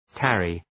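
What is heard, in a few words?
"tarry", English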